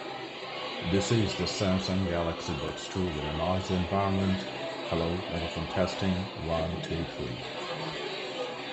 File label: Noisy; Buds2